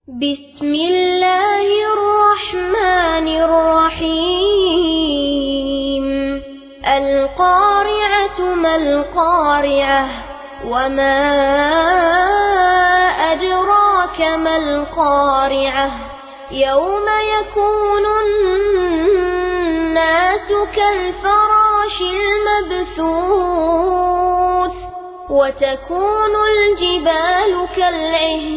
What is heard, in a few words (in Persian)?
قاری نوجوان عربستانی ترتیل; سوره قارعه